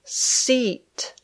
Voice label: "seat", English